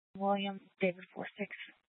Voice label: Police Department Dispatch, scanner